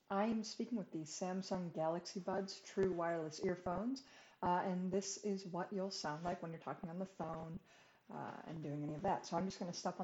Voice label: samsung galaxy buds microphone